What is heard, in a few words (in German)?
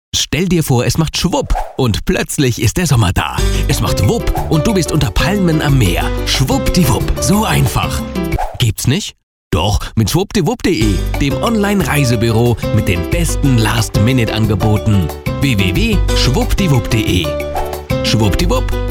sprecher werbung reisebuero